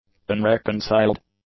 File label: "unreconciled", english; adjectives